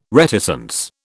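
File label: "reticence", British English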